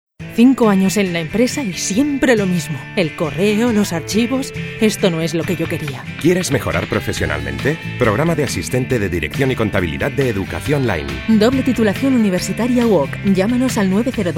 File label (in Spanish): anuncios; Educacionline